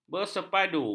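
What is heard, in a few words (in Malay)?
"bersepadu", Malay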